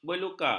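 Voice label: "beluka", Malay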